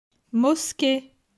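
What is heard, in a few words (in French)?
"Mosquee", French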